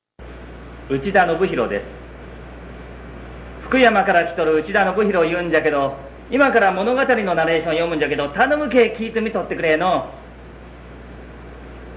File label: dialect